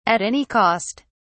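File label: "at any cost", British English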